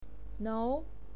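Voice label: "nou", Mandarin Chinese, second tone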